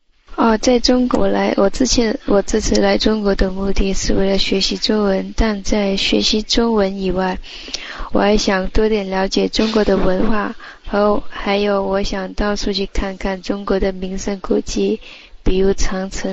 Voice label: Chinese Conversation